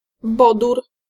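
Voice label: "bodór", Polish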